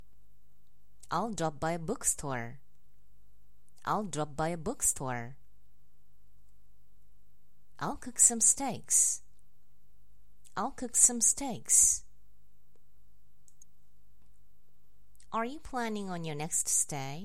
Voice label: nonvoiced sentences